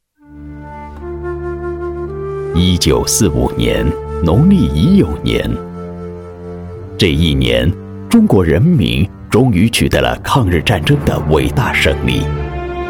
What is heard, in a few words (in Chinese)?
男 人物记录